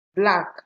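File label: "black", Nigerian Pidgin, female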